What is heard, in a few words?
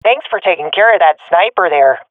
sees sniper killed, swat fem; vo; agents; Source Model Packs; Counter Strike Model Pack; CS2